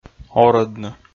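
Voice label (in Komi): "орӧдны", Komi, male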